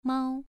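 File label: "mao", tone 2, pronunciation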